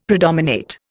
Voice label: "predominate", Indian; Dictionary

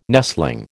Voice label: "nestling", American

